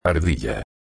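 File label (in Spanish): "ardilla", español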